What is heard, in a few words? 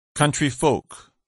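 "countryfolk", English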